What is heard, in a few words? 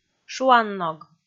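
"шуанног", Komi, female; kpv audio dict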